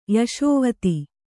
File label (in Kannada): "ಯಶೋವತಿ", Kannada